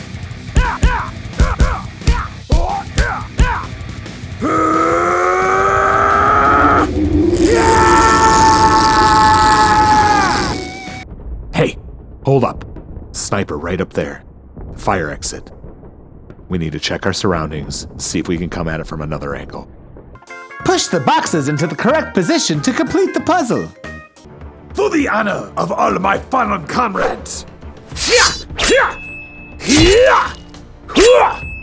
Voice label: video game reel, game dubbing male voices american accent